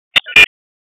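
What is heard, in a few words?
Raleigh Police North District Tactical; wake; p25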